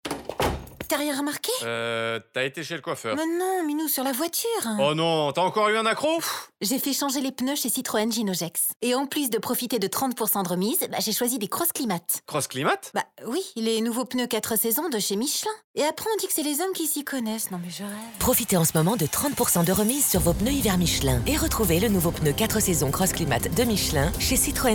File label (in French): Pub radio; Couples; CITROEN GINOT GEX